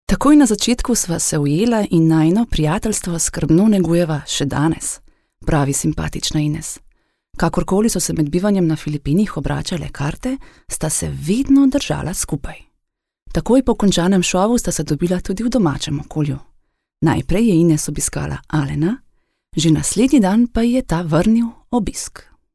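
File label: slovenian female narration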